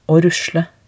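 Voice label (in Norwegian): "å rusle", Norwegian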